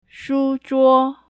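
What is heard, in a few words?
shuzhuo, desk, mandarin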